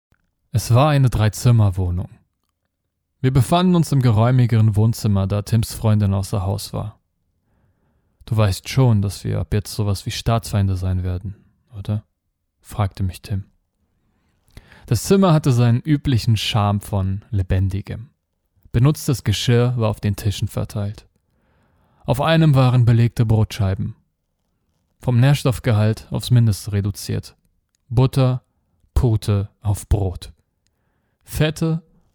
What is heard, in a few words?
GERMAN